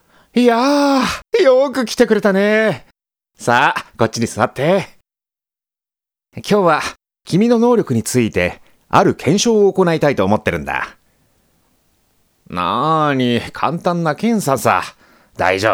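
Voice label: young man, Japanese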